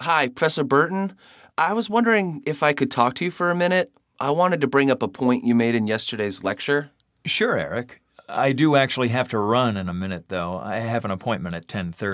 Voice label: infomercial campus conversation